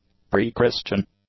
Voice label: "pre-Christian", english; adjectives